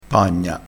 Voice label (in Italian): "pagna", dialettale crespinese